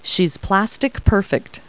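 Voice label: woman; Talking Clip Words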